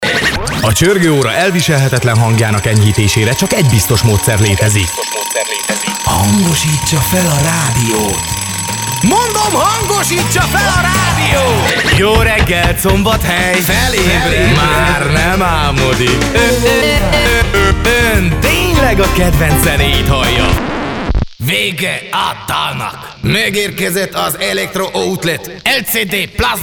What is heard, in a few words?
Hungarian; foreign languages